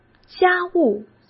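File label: "家务" Mandarin Chinese; chinese-flashcards; hsk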